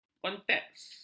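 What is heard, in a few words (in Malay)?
"konteks", Malay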